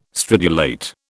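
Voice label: "stridulate", British English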